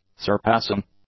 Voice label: "surpassing", English; adjectives